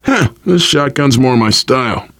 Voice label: take auto shotgun, voice, biker; Left 4 Dead 2; survivor